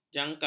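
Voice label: "jangkar", Malay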